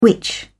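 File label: "which", British English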